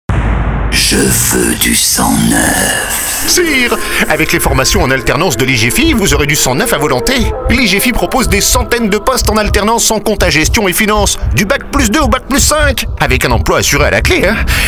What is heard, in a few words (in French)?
radio spot